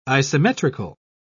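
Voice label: "isometrical", British English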